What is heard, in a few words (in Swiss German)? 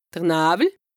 "d'r Nawwel", Alsace, HAUT RHIN; brouillard; lexique indispensable